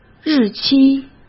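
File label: "日期", Mandarin Chinese; chinese-flashcards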